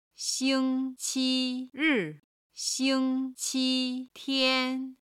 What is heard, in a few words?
"xing1 qi1 ri4" "xing1 qi1 tian1", chinese